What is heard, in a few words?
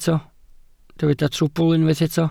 "trwbl", Welsh